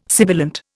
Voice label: "sibilant", Indian